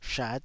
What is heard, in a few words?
"shat5", taiwanese